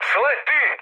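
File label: Serbian bailout mood high, speech; War Thunder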